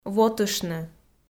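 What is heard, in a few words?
"вотыштны", Komi, female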